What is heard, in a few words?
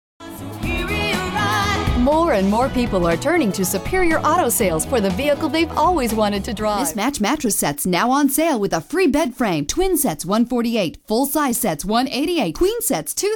radio commercial